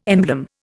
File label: "emblem", Indian